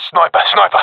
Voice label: sees sniper, professional epic, agents; vo; Source Model Packs; Counter Strike Model Pack; CS2

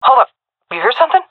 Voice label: heard sound, fem; vo; agents; Source Model Packs; Counter Strike Model Pack; CS2

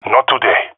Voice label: disagree, jungle male epic; vo; agents; Source Model Packs; Counter Strike Model Pack; CS2

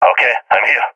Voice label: reporting in, balkan; vo; balkan epic

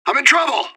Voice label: radio bot under fire; fbihrt

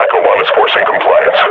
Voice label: suppressing, combine grunt

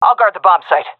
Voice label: guarding bombsite, seal fem; vo; agents; Source Model Packs; Counter Strike Model Pack; CS2

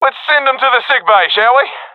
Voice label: round start, professional; vo; Counter Strike Model Pack; CS:GO

